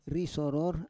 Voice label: "risoror", Roon